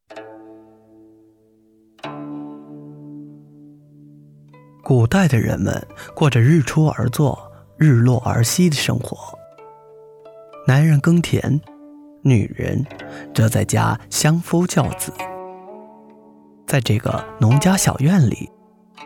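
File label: chinese mandarin male commercial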